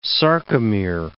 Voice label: "sarcomere", English